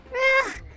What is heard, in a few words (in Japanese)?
ダメージボイス; ドンキーコング６４